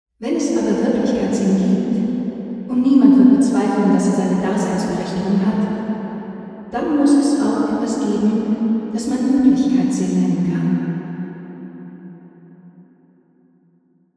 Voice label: kings college chapel cambridge female